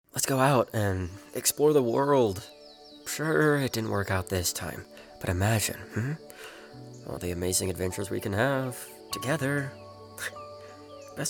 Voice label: Character Demo